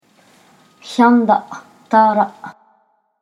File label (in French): "Yändatara", pain